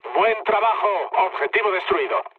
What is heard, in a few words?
bomb success mood med; War Thunder